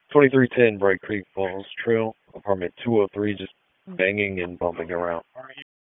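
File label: Police Southeast District Dispatch; Raleigh; wake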